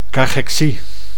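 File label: "cachexie", Dutch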